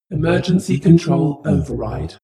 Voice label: female status report